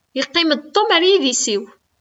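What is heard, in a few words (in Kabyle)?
Yeqqim-d Tom ɣer yidis-iw., Kabyle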